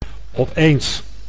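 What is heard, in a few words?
"opeens", Dutch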